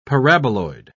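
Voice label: "paraboloid", British English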